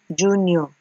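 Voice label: "junior", Nigerian Pidgin, female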